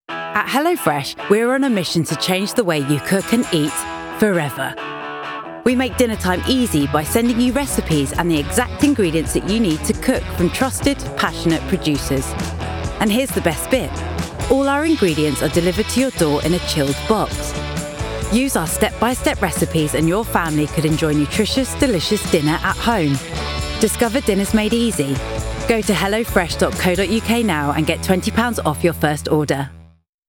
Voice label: female australian and english english commercial; hellofresh